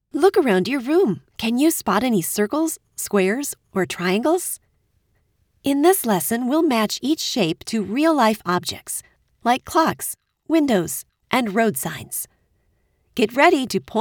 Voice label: Elearn Shapes